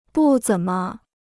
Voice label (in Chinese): "不怎么", Chinese